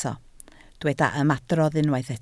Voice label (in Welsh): "ymadrodd", Welsh